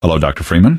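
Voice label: "hello doctor Freeman", male; npc; Garry's Mod; hl2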